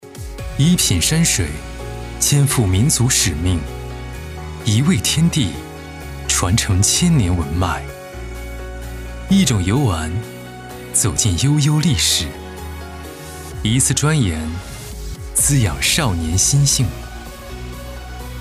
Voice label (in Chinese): 男 宣传片; 研学游